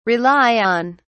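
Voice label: "rely on", British English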